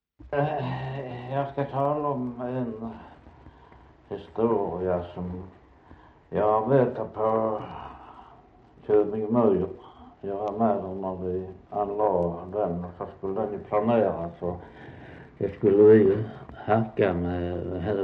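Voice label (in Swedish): Västra Torup Skåne, dialekter; Folkminnen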